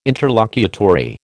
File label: "interlocutory", American